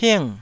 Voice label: "ping4", Taiwanese